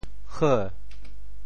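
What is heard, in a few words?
"heu2", Teochew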